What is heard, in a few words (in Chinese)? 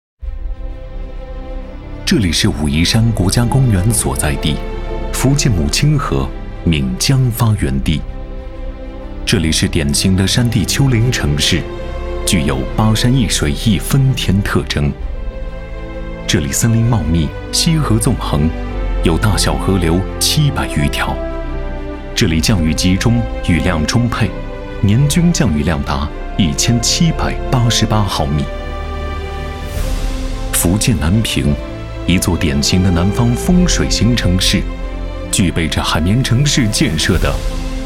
男 城市; 福建南平国家公园保护发展带